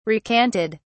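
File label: "recanted", British English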